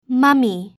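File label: "mummy", English; halloween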